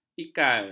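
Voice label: "ikal", Malay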